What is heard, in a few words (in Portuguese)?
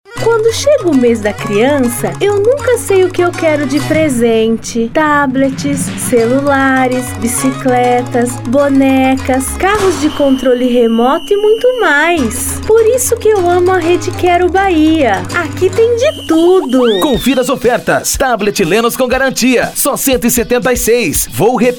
infantil